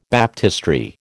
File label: "baptistery", American